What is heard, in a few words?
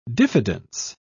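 "diffidence", en, British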